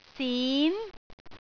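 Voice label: "sin2", Cantonese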